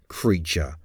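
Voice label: "creature", English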